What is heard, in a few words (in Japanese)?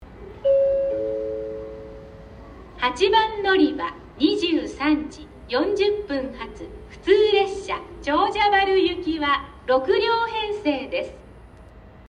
博多8番 案内放送（普通 長者原行き）